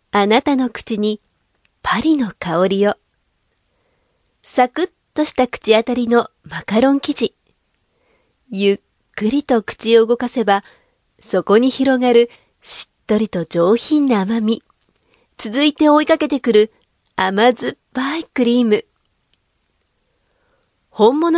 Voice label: Japanese Radio CM F